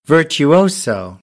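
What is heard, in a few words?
"virtuoso", American, English; AmericanBritish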